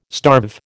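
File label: "starve", American English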